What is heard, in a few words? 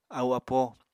"ahu-apo", Apatani